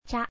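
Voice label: "cha", Japanese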